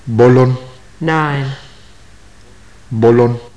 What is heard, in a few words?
numbers, maya